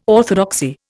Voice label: "orthodoxy", Indian